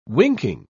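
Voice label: "winking", British English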